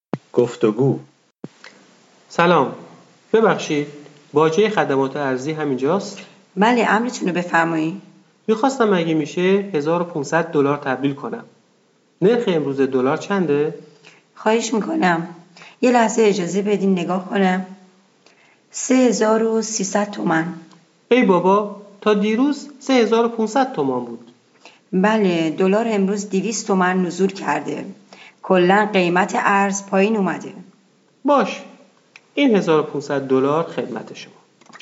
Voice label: Dialog, persian